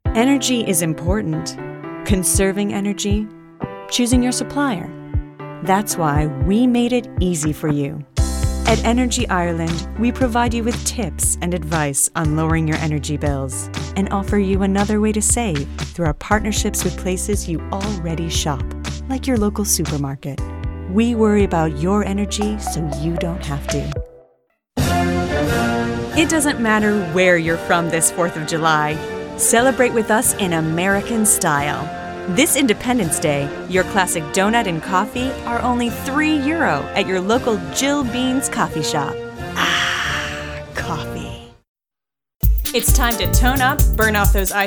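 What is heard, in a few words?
commercial voice over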